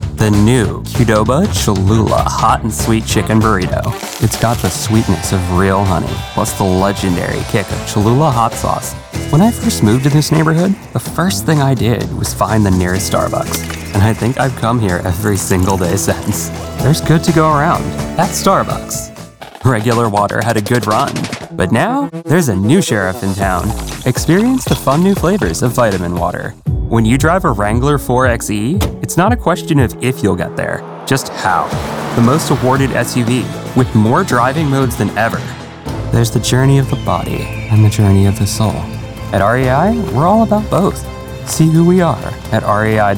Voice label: Commercial

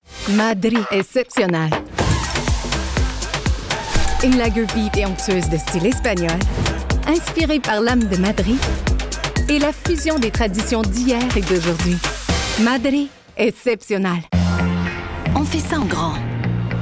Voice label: Commercial

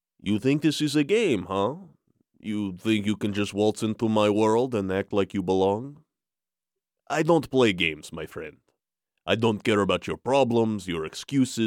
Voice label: Russian Mobster; Harrisonburg Virginia

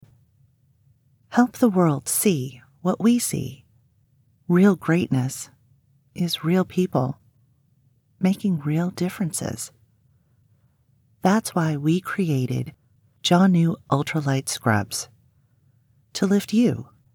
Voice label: Inspirational commercial

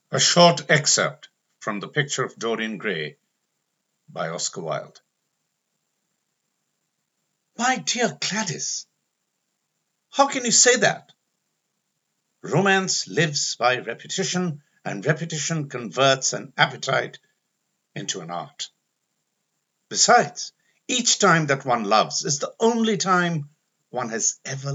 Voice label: British English RP; Dorian Gray

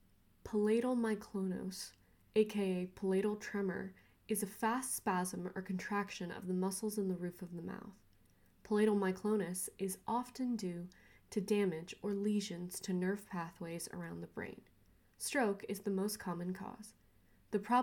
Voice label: Medical Narration

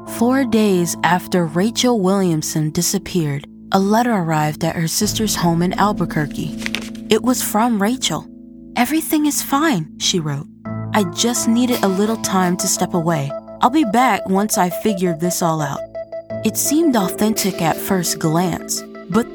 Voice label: Narration; The Letter

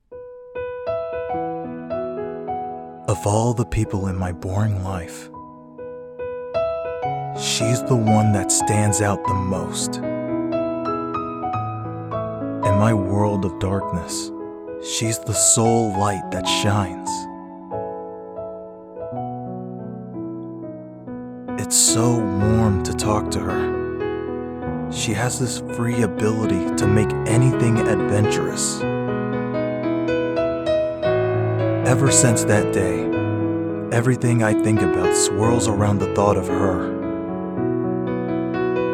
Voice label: Animation Romance; 23 yo Voice Talent born in Virginia and raised in Texas

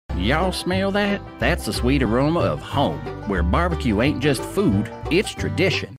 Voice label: Commercial Southern Accent

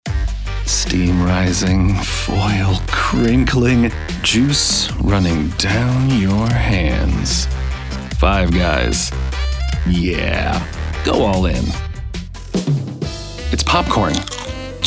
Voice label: Commercial

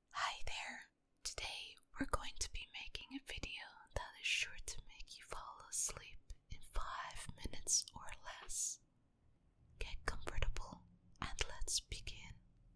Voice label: ASMR; native Irish voice artist

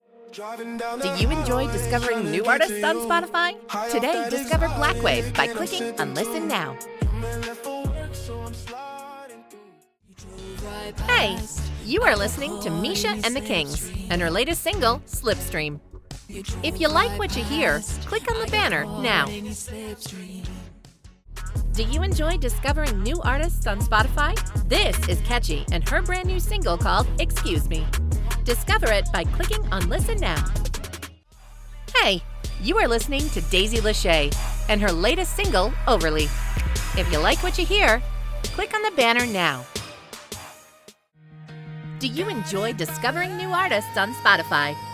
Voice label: Music Promo

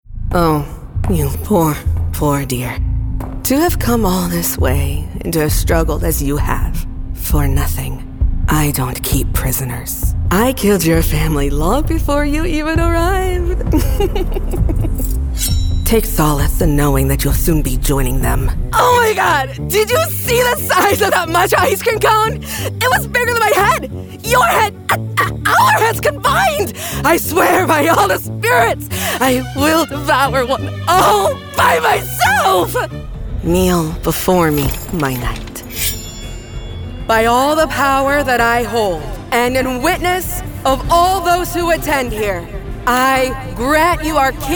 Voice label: Anime

